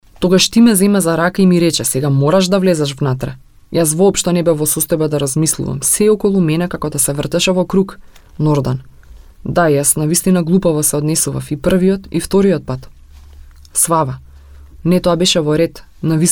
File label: macedonian female